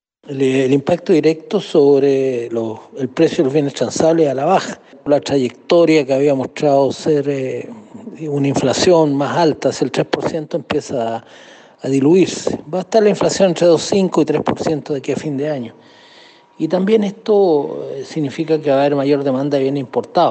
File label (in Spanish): CUÑA